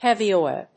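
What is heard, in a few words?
"heavy oil", English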